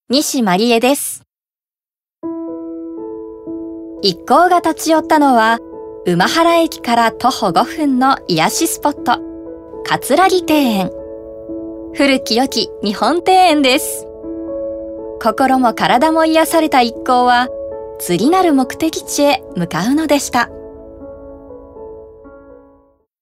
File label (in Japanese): ノーマル